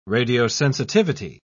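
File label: "radiosensitivity", British English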